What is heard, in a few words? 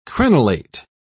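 "crenelate", British English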